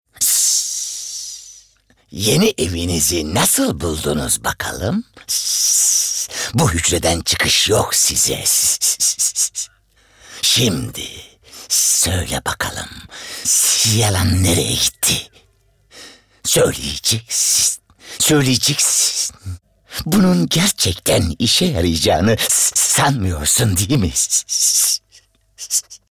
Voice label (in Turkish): ANIMASYON